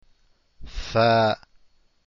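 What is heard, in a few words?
faa, arabic letters; deen path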